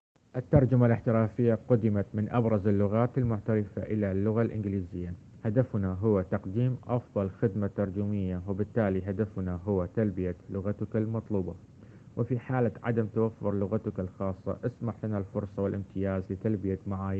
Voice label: arabic